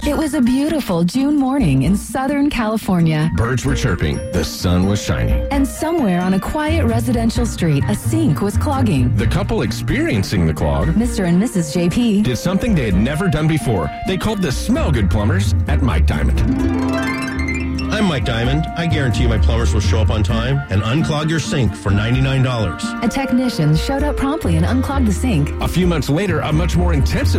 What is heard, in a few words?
Radio Commercial